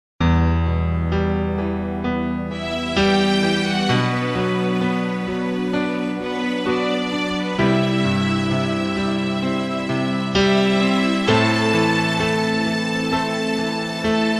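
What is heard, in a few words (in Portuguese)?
birthday SOGRA female, telemensagem; ANIV SOGRA FEM; aniversario sogra sogro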